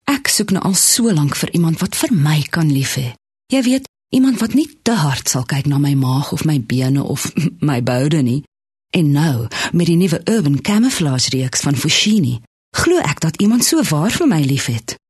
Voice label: Afrikaans Soft Sell